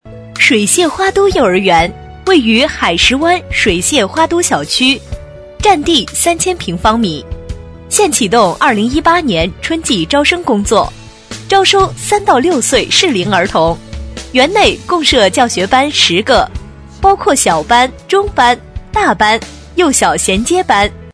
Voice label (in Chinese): 女声; 【幼儿园】招生